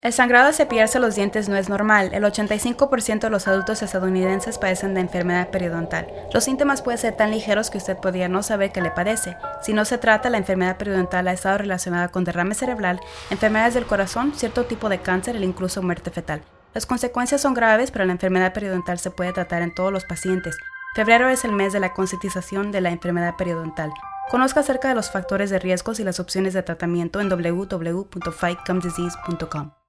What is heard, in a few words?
PSA Spanish